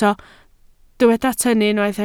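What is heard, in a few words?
"tynnu" Welsh, spoken word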